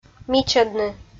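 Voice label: "мичӧдны" Komi, female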